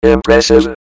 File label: impressive, robot; Announcer